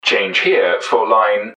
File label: change, english man, subway announcers; MetrostroiAddon